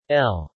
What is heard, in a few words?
L male